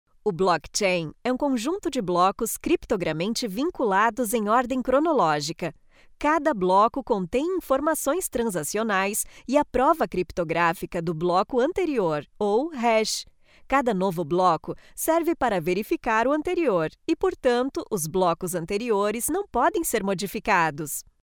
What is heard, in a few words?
locutora brasil brazilian voiceover; locutora brasileña